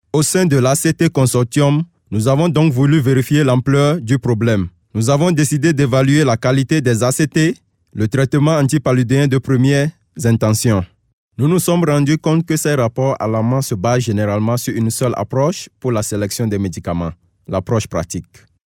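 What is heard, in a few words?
French African male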